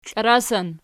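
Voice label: "klarasan" nominative, Archi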